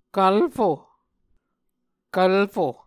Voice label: "kvlfo", Muscogee